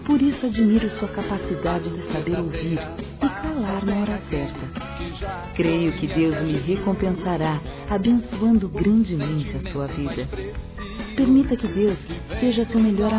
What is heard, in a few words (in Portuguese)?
AMIZADE GERAL (F), Telemensagens, Gospel, fem; Telemensagens 2017